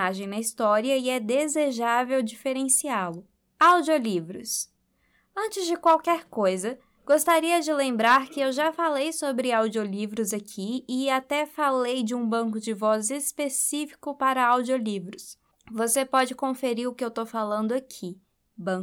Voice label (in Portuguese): vozes diferentes, locucao